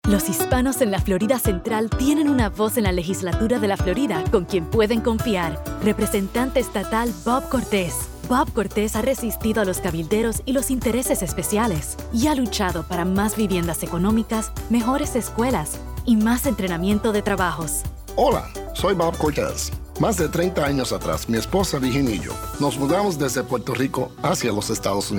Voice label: radio; Cortes